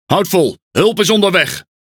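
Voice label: NL Speech Quick Commands Aye Aye, Dutch; World Of Warships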